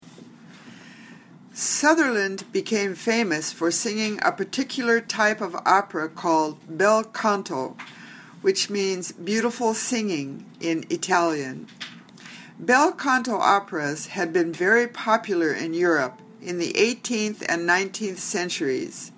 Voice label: Reading Drill